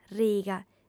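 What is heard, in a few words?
"riga", female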